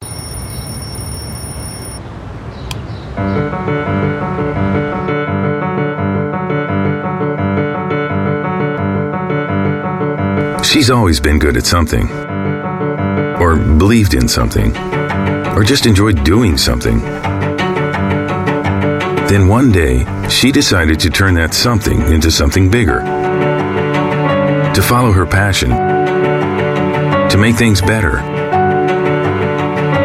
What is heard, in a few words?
US English; 92sec Facebook Womens Day